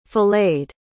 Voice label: "filleted", pronounce